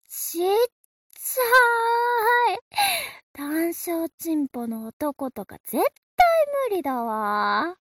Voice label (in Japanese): mob 女子 台詞